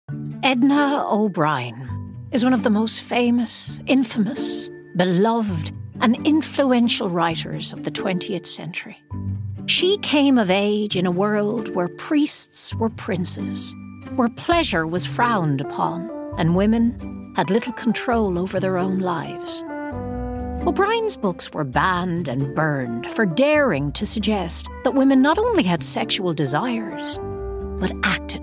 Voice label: Documentary